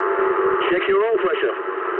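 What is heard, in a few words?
damaged oil mood low, speech English; War Thunder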